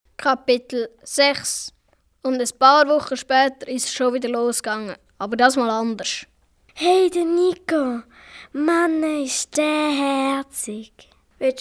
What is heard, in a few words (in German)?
Hoerspiel Zickenkrieg; Minutengeschichten